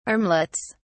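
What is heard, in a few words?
"armlets", British English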